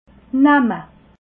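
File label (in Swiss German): "namme", Alsatian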